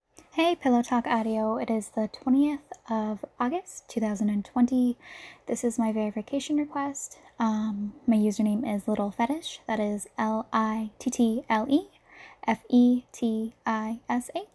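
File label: Verification, female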